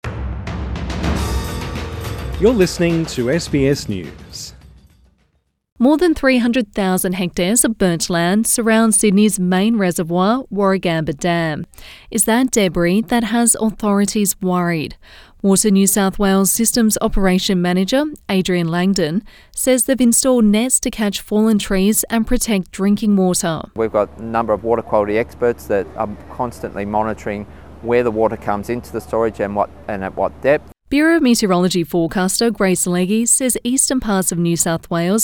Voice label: english; Bushfire concerns over Sydney's water supply; SBS News; Forecast heavy rains could wash ash and debris into Sydney’s main water supply